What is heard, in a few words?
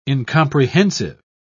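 "incomprehensive", British English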